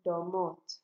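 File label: "domot", Nigerian Pidgin, female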